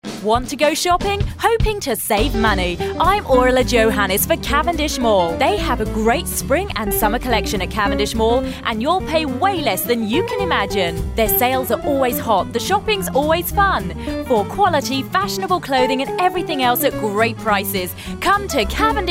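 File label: endorsement commercial; radio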